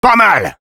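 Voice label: Sniper nice shot, French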